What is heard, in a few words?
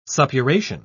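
"suppuration", British English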